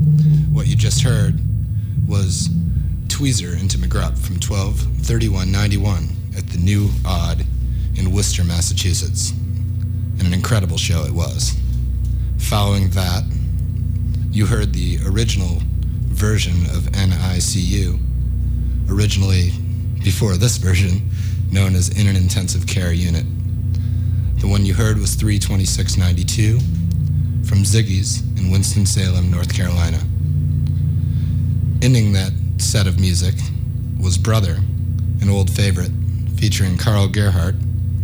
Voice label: radio banter; The Clifford Ball